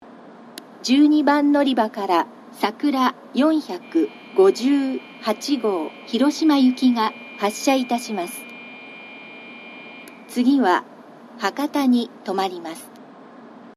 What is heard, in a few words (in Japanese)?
12番のりば発車放送, 新幹線ホーム; 新鳥栖; さくら458号 広島行き